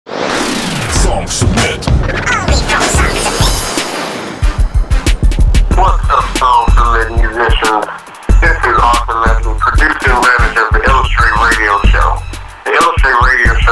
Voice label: radio drop